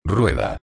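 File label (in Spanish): "rueda", español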